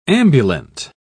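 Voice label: "ambulant", English, British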